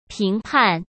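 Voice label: "评判", Mandarin Chinese; chinese flashcards; hsk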